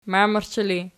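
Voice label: "mamerchi" ergative, Archi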